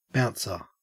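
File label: "bouncer", Australian English; pronunciations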